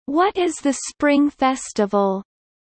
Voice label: low